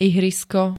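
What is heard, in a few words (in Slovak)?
"ihrisko", Slovak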